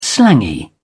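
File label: "slangy", British English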